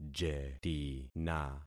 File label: "jetina", concat syllables; Affixing experiment